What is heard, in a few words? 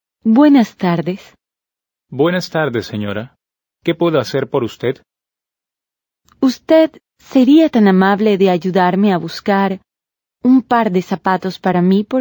Dialogue, Spanish